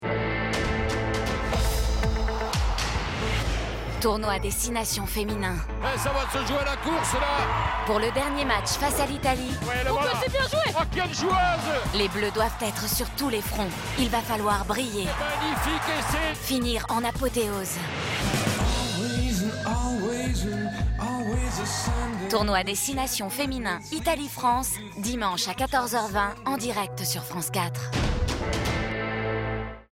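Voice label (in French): F FR feminin, French, female; T6N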